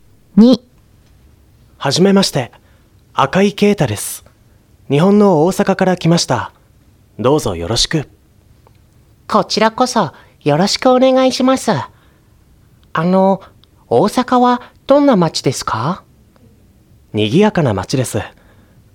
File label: Conversation; tobira